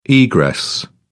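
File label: "egress", British English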